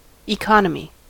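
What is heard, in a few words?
"economy", US English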